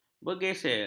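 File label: "bergesel", Malay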